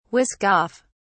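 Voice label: "whisk off", British English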